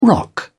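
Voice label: "rock", British English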